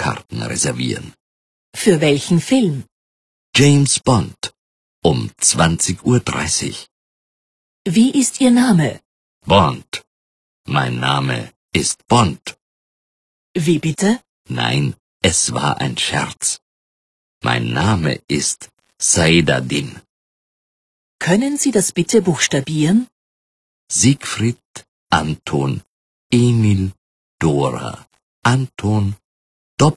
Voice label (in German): Am Telefon; Deutschkurs